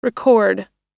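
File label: "record" US English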